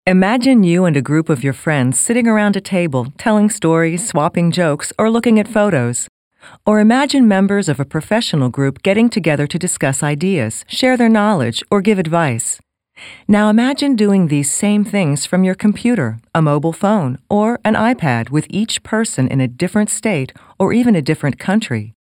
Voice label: 30s 40s Female Narration